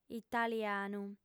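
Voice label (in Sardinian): "italianu", Sardinian, female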